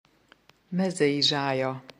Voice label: "mezei zsálya", hungarian; Meadow sage; hungarian flower flashcards